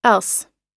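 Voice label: "else", English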